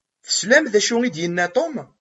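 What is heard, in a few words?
"Tesliḍ d acu i d-yenna Tom?", Kabyle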